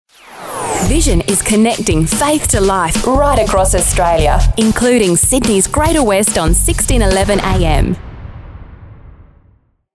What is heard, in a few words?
Radio Imaging; Vision